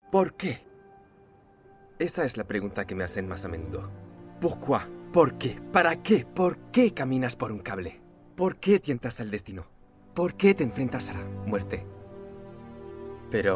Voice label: male French Spanish Castilian with French accent and English with French accent